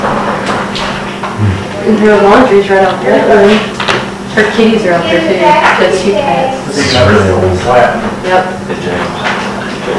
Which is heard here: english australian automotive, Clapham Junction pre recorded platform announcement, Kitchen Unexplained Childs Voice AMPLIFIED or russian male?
Kitchen Unexplained Childs Voice AMPLIFIED